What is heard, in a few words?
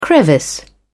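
"crevice", British English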